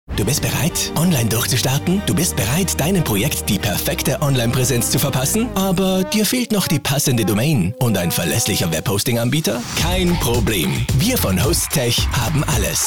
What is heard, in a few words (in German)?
Mann OFF